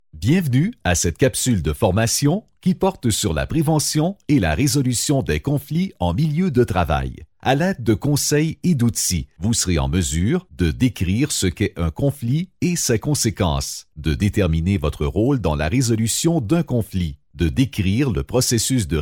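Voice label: male, Canadian French, e-learning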